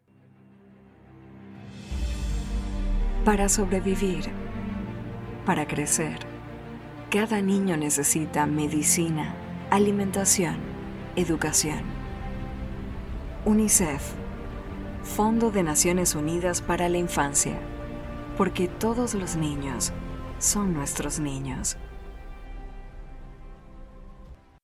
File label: SPANISH